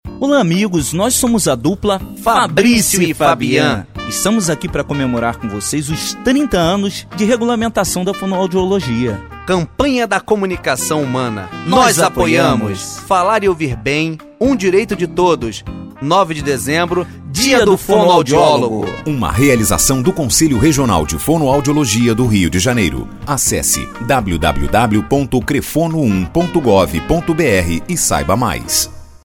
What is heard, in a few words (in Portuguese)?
Spot